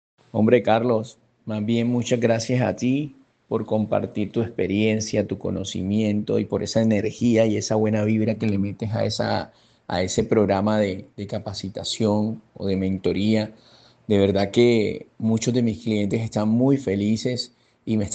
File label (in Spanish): Testimonio Ejecutivo Comercial; Metro Cuadrado Barranqulla